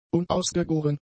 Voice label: "unausgegoren", German; adjectives